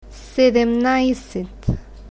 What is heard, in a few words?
"sedemnajset", Bulgarian, numbers